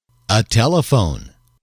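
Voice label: "telephone", male, english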